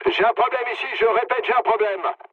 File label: aircraft damaged mood low, speech fr; War Thunder